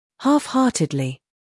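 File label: "humor", British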